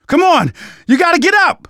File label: revive friend loud, survivor voice; Left 4 Dead 2; left4dead2 dlc3